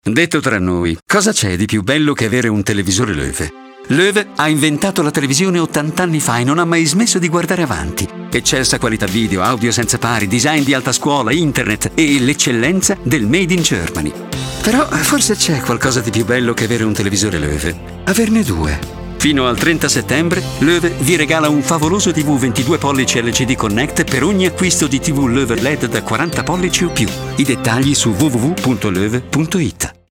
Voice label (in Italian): radio; loewe